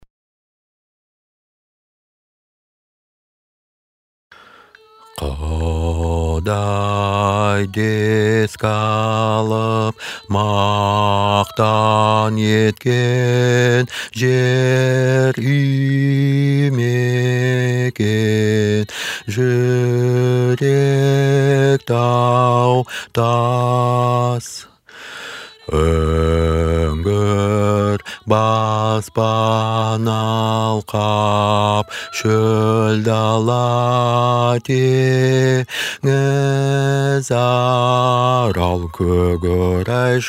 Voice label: bass pronunciation